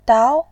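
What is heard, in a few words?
"dao", Mandarin Chinese, second tone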